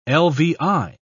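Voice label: "lvi", British English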